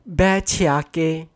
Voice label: "upper circular road", hokkien; singapore